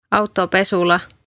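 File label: "autopesula", Estonian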